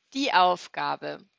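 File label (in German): "Aufgabe", German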